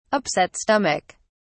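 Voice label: "upset stomach", British English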